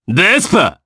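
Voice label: voice, Japanese; Ezekiel; Vespa; kingsraid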